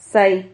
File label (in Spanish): "zhai", Silabas